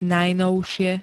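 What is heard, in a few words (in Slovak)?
"najnovšie", Slovak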